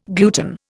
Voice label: "gluten", Indian

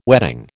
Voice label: "wedding", American

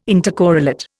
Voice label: "intercorrelate", Indian

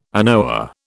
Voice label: "anoa", British

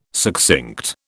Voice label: "succinct", British

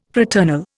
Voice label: "fraternal", Indian

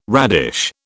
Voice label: "radish", British

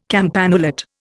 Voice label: "campanulate", Indian

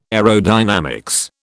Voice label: "aerodynamics", British

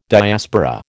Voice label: "Diaspora", American

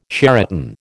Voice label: "Sheraton", American

